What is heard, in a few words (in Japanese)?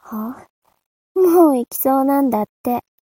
mob 女子 台詞